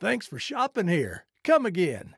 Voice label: trader male like sale accepted